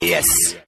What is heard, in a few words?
"yes", male